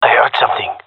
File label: heard sound, gendarmerie male; vo; agents